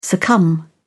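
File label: "succumb", British English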